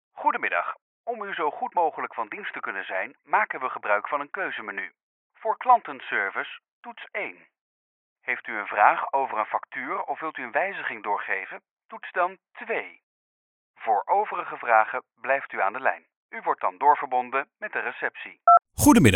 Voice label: voice response system